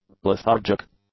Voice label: "lethargic", english; adjectives